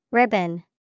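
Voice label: ribbon, English vocabulary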